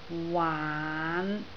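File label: "waan5" Cantonese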